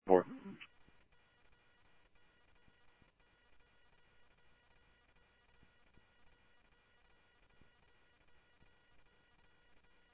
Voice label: Police, scanner audio

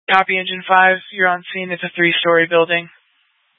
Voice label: Fire Dispatch; K8 - FDCOM 8 - South; C- Chandler

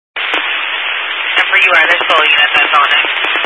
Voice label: Highway Patrol, scanner; DPS

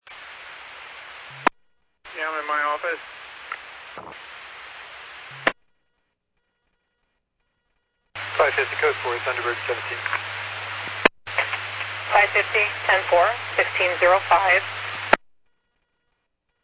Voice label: Medevac, scanner; NativeAirAmb

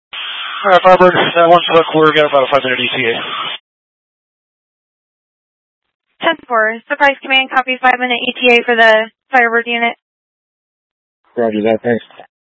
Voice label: Mutual Aid-Intersystem Interop B, scanner

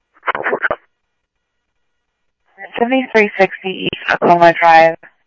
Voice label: Police, scanner audio trunk

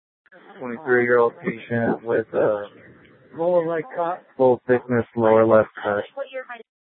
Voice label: Sheriff, scanner P25

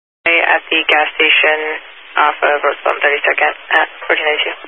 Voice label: Highway Patrol, Phoenix Metro East Area, scanner

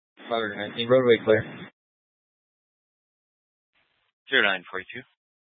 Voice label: Mesa PD Dispatch

